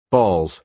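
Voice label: "balls", American English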